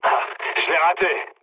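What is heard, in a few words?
bomb failure, mood low, French; War Thunder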